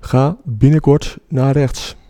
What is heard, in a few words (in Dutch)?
Ah Right Turn, Dutch, male